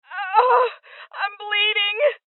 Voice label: Voices Injury Female; rebel cops 2019 gamerip

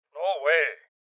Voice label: Voices Refuse Male Old; rebel cops 2019 gamerip

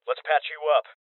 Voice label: Voices Heal Male Young; rebel cops 2019 gamerip

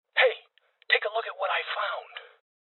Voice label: Voices OpenSafe Male; rebel cops 2019 gamerip

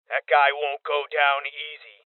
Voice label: Male Young

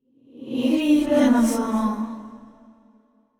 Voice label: VO thinking; yamiko

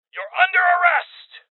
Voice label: Voices Order To Surrender Male

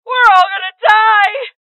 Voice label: Voices HeavyLosses Female; rebel cops 2019 gamerip

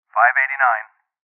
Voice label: Voices Trap Bomb Spotted Male; rebel cops 2019 gamerip

